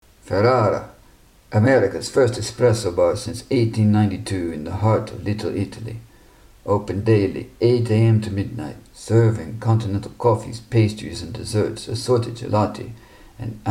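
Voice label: US English Italian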